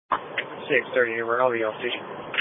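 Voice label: Police Patrol Dispatch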